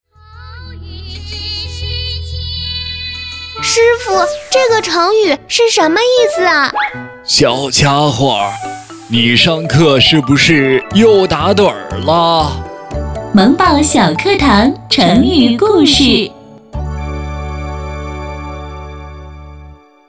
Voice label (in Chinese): 电台广告; 漠北电台广告萌宝小课堂